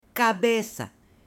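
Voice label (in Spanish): "cabeza" Spanish, male; CUERPO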